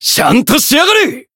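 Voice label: Voice heal, Japanese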